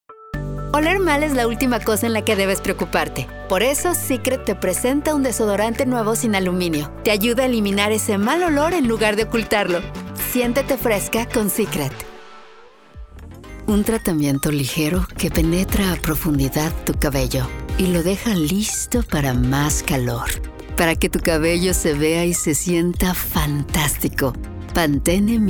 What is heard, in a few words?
Commercial in Spanish